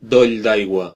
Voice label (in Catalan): "splash", voices ca words; gcompris